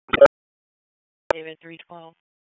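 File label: Police Northeast District Dispatch, Raleigh; p25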